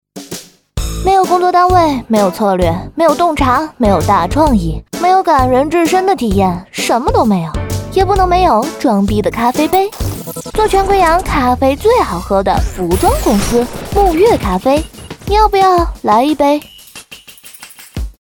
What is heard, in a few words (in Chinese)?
女 广告 另类宣传